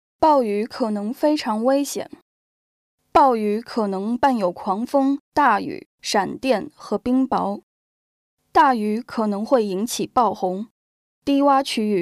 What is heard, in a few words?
mandarin stormsafe audio